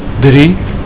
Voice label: "drie", Afrikaans

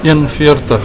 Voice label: "een veertig", Afrikaans

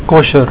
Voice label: "kosher", Afrikaans

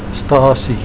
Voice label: "stasie", Afrikaans

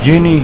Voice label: "junie", Afrikaans

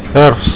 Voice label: "herfs", Afrikaans

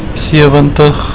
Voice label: "sewentig", Afrikaans

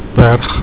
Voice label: "berg", Afrikaans

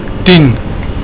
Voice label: "tien", Afrikaans

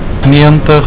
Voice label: "neëntig", Afrikaans

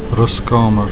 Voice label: "ruskamer", Afrikaans

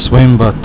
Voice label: "swembad", Afrikaans

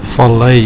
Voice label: "vallei", Afrikaans

